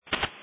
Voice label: Police Dispatch; sdr